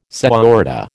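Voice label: "señorita", American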